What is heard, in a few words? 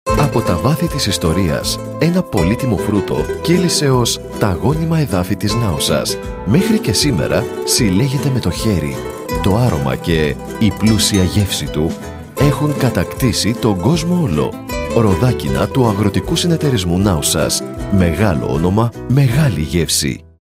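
Greek, corporate; GoLocalise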